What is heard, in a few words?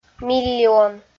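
"миллион" Komi, female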